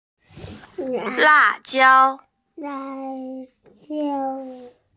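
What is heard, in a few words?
"la jiao", Mandarin Chinese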